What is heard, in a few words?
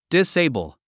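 "disable", English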